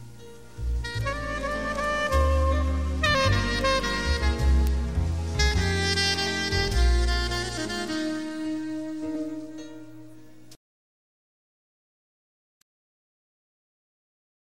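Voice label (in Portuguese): Feliz Natal, female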